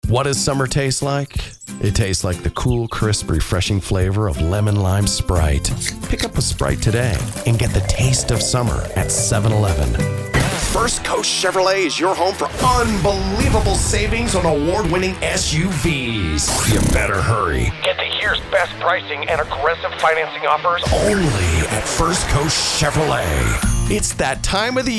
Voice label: Commercial